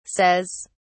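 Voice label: "says", English, British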